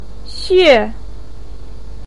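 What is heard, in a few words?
"xue4", Mandarin Chinese, pinyin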